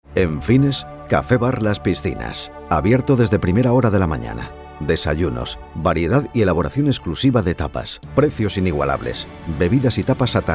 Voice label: locutor spanish voiceover; Spanisch Sprecher